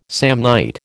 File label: "Samnite", American